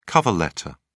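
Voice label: "cover letter", British English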